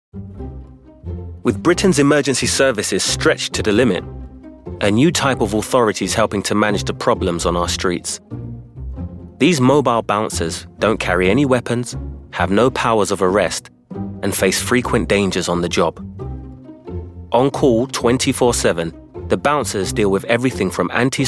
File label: Documentary